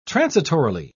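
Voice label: "transitorily", British English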